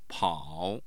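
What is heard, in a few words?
"pao3", Mandarin Chinese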